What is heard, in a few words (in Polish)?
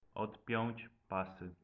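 "odpiac pasy", Polish; multimedia glossaries; transport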